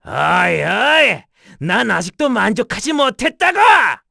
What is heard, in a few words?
Victory, kr; Bernheim; kingsraid